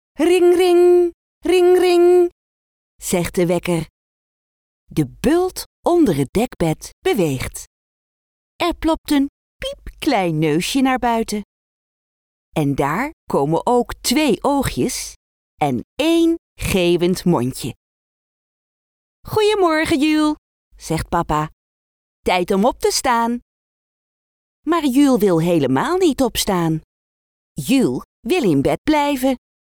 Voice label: childrenstory; Juul blijft in bed